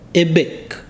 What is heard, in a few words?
"chin", Ibibio